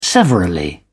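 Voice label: "severally", British English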